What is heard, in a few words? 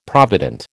"provident", American